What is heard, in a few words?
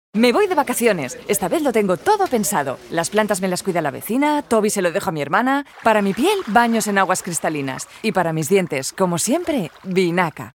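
Spanish commercial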